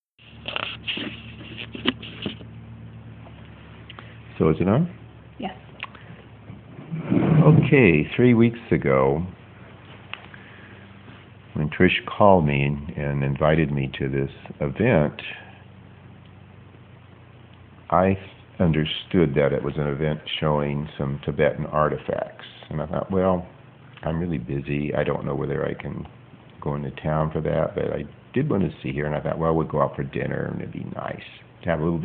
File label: Guided Meditation Rainbow of Compassion